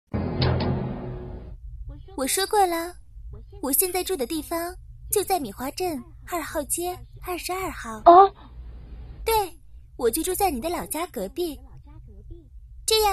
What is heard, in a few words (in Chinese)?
日式动漫中文配音